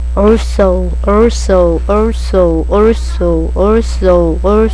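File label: "also", spoken word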